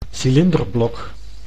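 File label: "cilinderblok", Dutch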